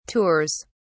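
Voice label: "tours", British English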